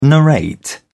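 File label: "narrate", British English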